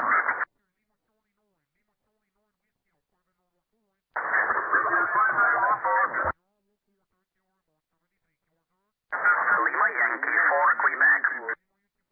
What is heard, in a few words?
USB 21MHz; CQWW SSB